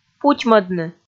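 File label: "путьмӧдны", Komi, female; kpv audio dict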